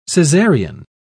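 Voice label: "cesarian", British English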